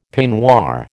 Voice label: "peignoir", American

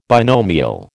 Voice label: "binomial", American

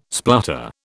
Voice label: "splutter", British

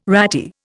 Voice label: "ratty", Indian

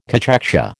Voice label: "cachexia", American

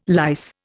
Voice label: "lice", Indian

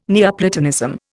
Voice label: "Neoplatonism", Indian

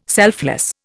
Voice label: "selfless", Indian

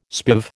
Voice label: "spiv", American

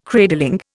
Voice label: "cradling", Indian

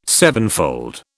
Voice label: "sevenfold", British

